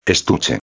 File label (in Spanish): "estuche", espanhol; palavra do dia